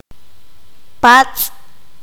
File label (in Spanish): "chinche", qanjobal